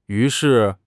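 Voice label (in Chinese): "yu2 shi4", Chinese; HSK; HSK4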